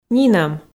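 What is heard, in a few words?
"нинӧм", Komi, female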